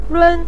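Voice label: "run", Mandarin Chinese, neutral tone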